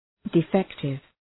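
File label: "defective", English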